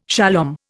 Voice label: "shalom", Indian

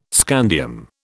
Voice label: "scandium", British

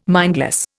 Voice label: "mindless", Indian

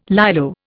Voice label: "Lilo", Indian

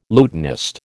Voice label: "lutanist", American

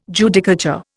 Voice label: "Judicature", Indian

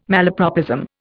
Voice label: "malapropism", Indian

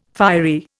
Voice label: "fiery", Indian

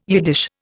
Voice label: "Yiddish", Indian

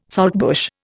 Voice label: "saltbush", Indian

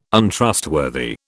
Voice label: "Untrustworthy", British

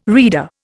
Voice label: "rede", Indian

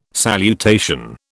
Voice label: "salutation", British

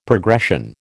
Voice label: "progression", American

